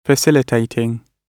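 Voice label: "facilitating", British English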